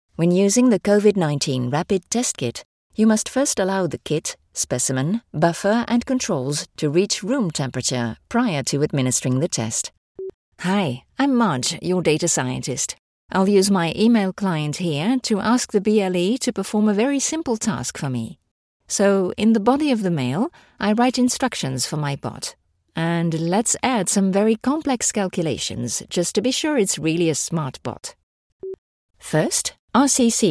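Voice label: English, female, narration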